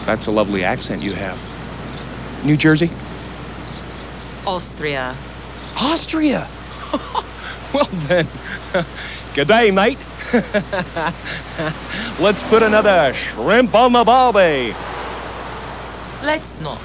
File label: dumb accent; Dumb and Dumber